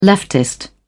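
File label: "leftist", British English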